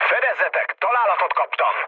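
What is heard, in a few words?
Hungarian aircraft damaged mood low, speech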